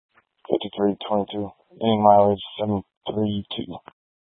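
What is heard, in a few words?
Sheriff Dispatch, scanner audio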